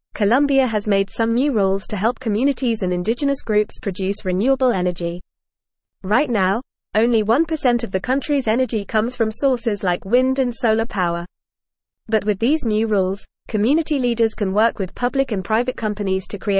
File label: English Upper Intermediate FAST Reading; English Newsroom